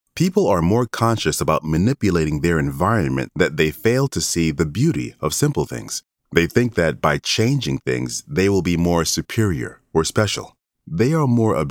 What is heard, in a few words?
narration dry